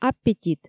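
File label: "аппетит" Komi, female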